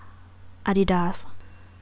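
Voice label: "Adidas", Thai female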